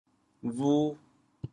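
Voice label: "bhu2", Teochew, chaoyang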